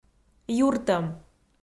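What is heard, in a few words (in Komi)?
"юртӧм" Komi, female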